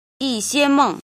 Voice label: "jin", Chinese